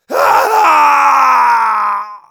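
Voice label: pain critical death, de; Demoman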